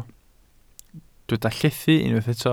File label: "llethu", Welsh